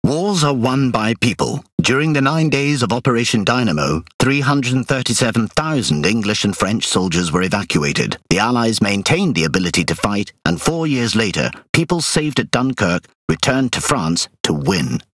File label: Pirate; CSM FINISH SUCCESS; World Of Warships